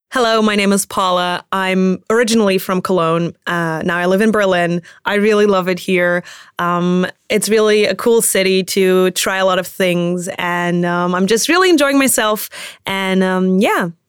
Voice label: Vorstellung EN

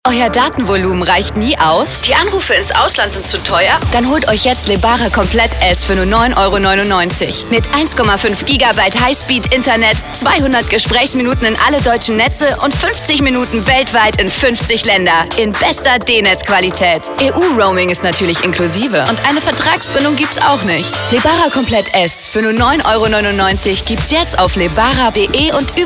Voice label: Radiospot; Lebara komplett

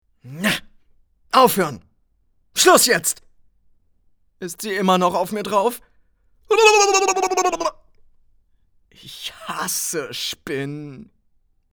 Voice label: Rolle Ich hasse Spinnen, German

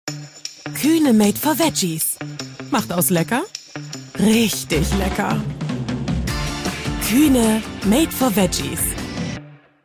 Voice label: Werbung Veggies; Kuehne

